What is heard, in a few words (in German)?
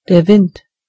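"wind", German; gcompris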